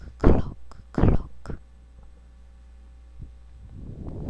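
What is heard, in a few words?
"clock", spoken word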